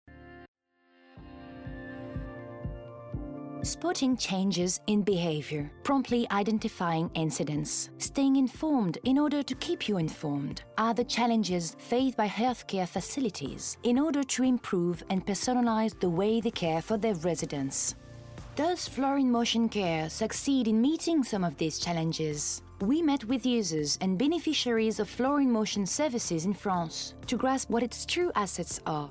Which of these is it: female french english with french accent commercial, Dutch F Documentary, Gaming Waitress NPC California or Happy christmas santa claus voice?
female french english with french accent commercial